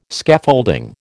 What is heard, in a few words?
"Scaffolding", American